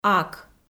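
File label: "ак", Komi, female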